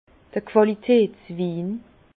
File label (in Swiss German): "qualitatswin", Bas Rhin